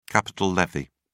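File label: "capital levy", British English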